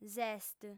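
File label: "s'est", female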